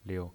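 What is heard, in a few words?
"liu", pinyin, Mandarin Chinese, neutral tone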